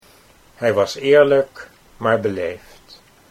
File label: "eerlijk beleefd", Dutch